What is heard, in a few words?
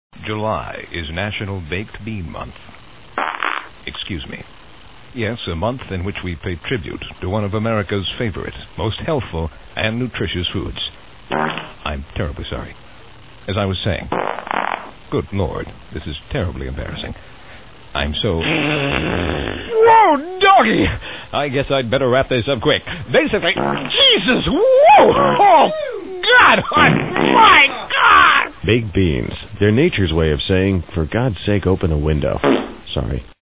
Commercials; Bean Month; Freekin Weird Audio